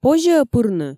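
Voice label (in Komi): позьӧ-ӧ пырны, Komi, female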